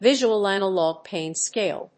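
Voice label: "visual analog pain scale", English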